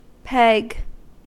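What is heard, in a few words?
"peg" English, US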